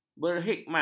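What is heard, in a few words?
"berhikmat", Malay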